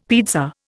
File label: "pizza", Indian; Dictionary